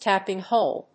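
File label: "TAPPING HOLE", English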